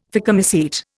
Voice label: "phycomycete", Indian; Dictionary